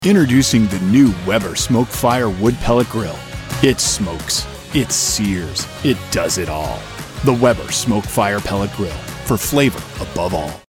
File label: Grilling, voice